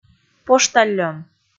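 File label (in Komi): "поштальон" Komi, female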